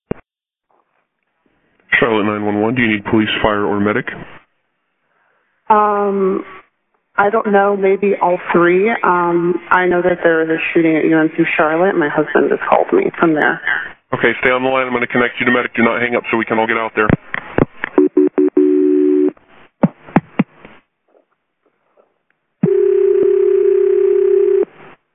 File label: 911 call; 9201 University City Bv